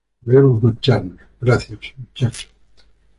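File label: "gracias", Spanish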